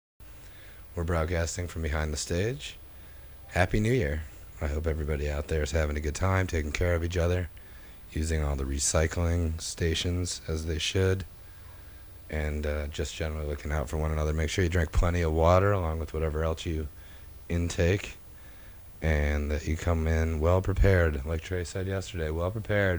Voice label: radio banter; Big Cypress